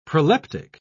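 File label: "proleptic", British English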